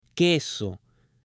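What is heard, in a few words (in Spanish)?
"queso" Spanish, female; ALIMENTOS; AppLenguaje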